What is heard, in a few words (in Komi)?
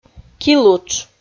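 "килутш", Komi, female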